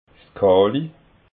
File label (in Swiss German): "kali", alsace